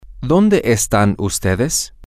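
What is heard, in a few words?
where are all of you, spanish, male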